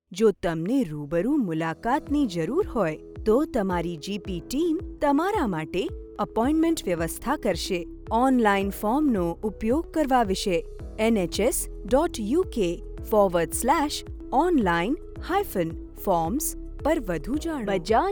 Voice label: Gujarati Explainer